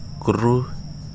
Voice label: "white", Wahgi; lae unitech